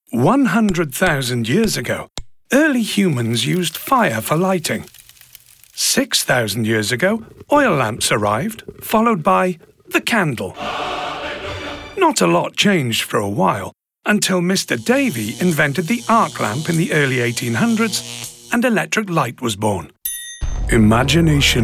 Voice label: Corporate